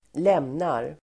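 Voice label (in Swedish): "lämnar", Swedish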